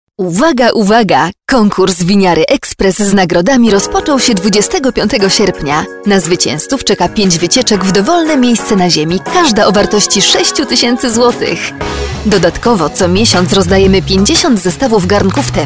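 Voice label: Polish, female, commercial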